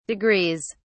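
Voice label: "degrees", British English, dictionary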